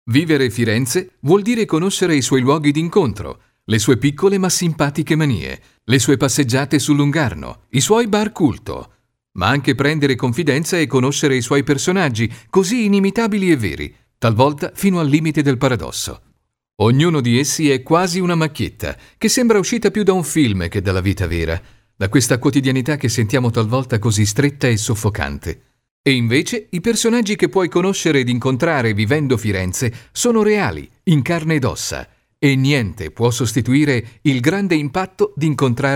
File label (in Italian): ITALIANO IMPOSTATO, SPEAKER